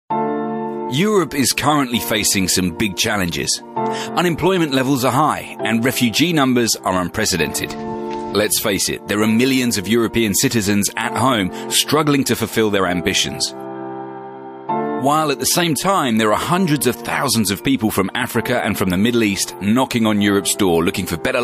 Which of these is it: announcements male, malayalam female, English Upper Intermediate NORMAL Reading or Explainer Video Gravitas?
Explainer Video Gravitas